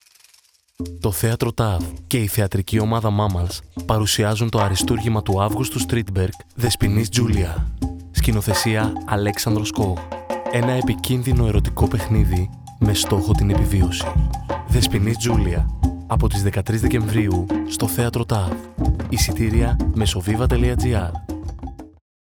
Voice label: radio spot; Δεσποινίς Τζούλια